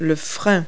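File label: "brake", English word; Multi-Lingual Talking Picture Dictionary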